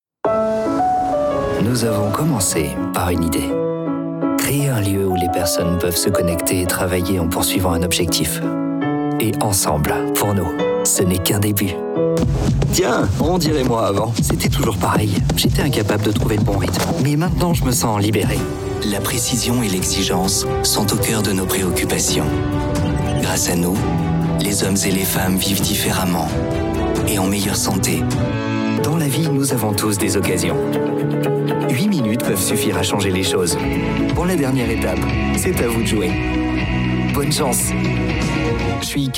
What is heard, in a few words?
French voice over Corporate